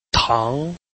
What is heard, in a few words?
"tang2", Chinese